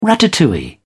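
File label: "ratatouille", British English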